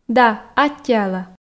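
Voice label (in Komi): "да аттьӧала", Komi, female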